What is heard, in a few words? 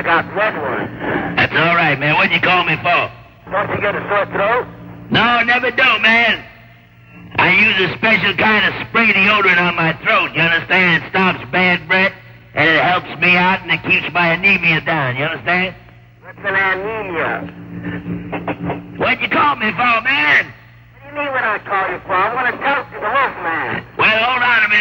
phone bit